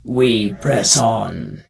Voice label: Death Knight Female Low Greeting Free